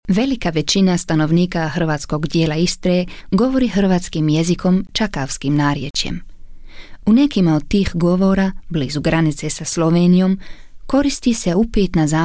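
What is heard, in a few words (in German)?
Kommentar Neutral, Croatian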